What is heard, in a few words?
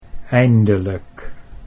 "eindelijk", Dutch